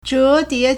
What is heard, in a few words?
"zhe2die2", Chinese